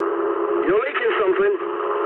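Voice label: English tank damaged mood low; War Thunder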